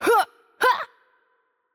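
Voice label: Change Player, Romanian; VO; bloom minigame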